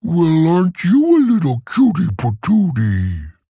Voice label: Male Tauren In Game; Guff; If Guff Destroys Whelp

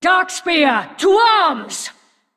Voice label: Female Undead

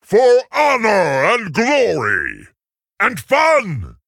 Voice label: Male Tauren

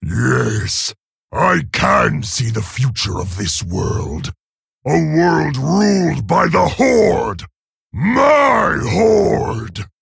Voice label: Male Orc; Garrosh; Mission8

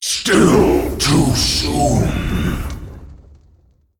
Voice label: Male Demon Death

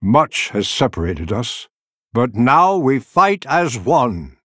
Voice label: Male Night Elf; Malfurion; Prologue Mission3 Turn1

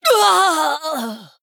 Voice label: Female Draenei Death

